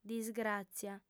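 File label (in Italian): "disgràtzia", lingua sarda, female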